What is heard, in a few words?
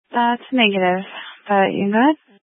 Sheriff Dispatch, scanner; Mesa County